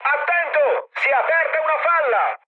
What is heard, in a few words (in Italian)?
damaged water, mood high, speech it; War Thunder